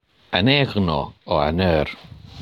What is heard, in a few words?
Reading; Human Actions